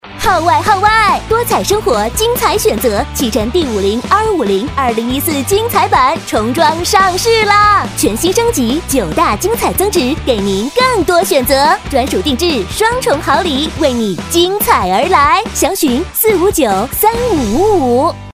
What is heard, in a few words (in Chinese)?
广告 欢快, 女; 启辰